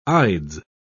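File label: "ides", English, British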